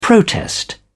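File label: "protest", British English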